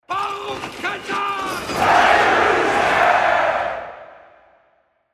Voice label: Dwarven; baruk